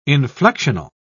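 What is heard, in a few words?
"inflectional", British English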